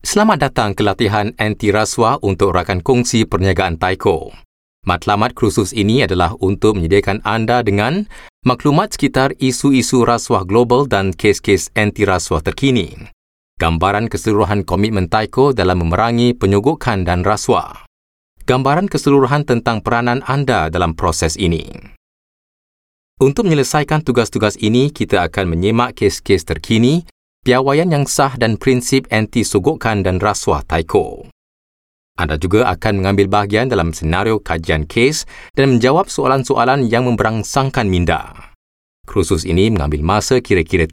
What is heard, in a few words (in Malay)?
Malay M